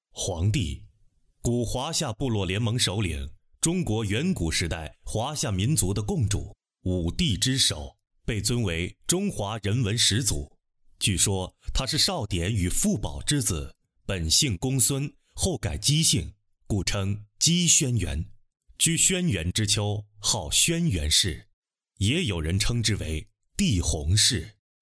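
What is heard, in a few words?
Mandarin Chinese, male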